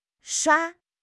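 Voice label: "shua4", Chinese; 汉语口语新时代-初级下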